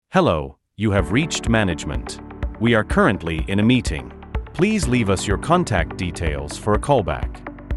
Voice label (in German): business Ansage